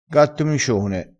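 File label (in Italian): "gatto micione", vocabolario dialettale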